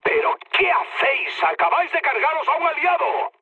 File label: player killed ally, mood med, speech; War Thunder